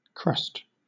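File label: "crust", British English; pronunciations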